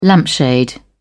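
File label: "lampshade", British English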